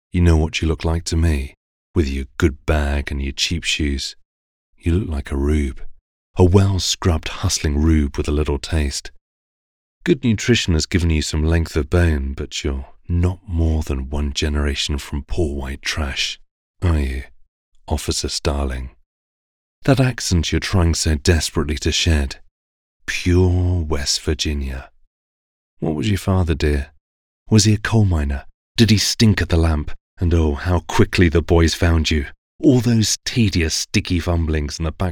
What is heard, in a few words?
Audiobook Demo